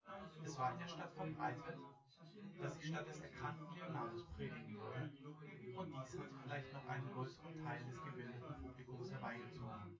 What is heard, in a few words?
male synth conv